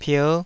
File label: "pier5", taiwanese